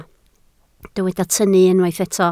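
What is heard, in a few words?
"tynnu" Welsh, spoken word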